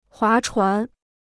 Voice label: "划船", Chinese

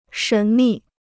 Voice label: "神秘", Chinese

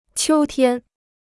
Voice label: "秋天", Chinese

